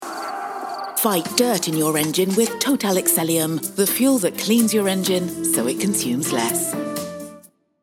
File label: signature commercial; total excellium